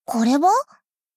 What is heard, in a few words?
doubt